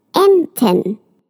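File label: "ducks", German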